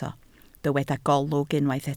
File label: "golwg", Welsh